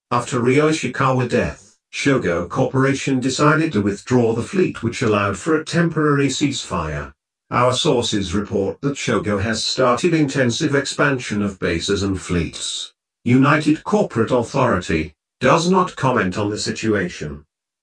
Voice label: news; talking; shogo

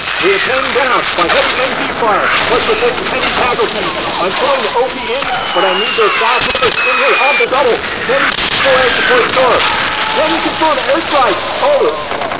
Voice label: radio mike

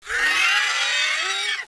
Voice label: threat

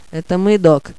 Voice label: radio

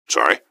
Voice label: sorry, gasmask; scmod

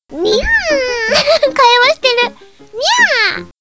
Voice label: chat

